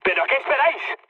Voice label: attack position, mood med, speech; War Thunder